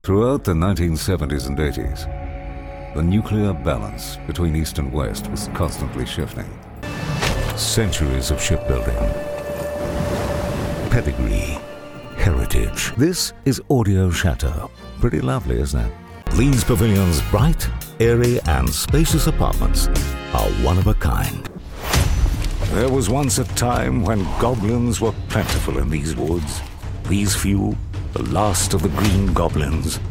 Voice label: Corporates

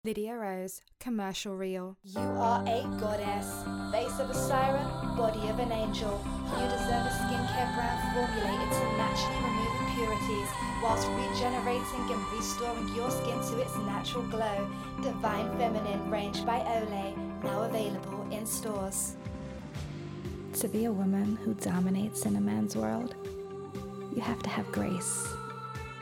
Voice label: comercial

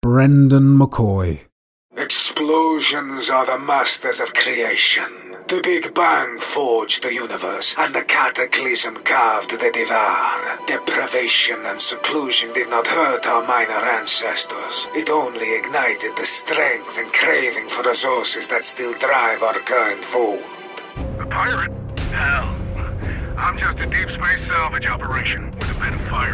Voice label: Video Game Character Reel

